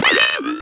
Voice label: speak n spell errewoo, CIRCUITBENT; Speak and Spell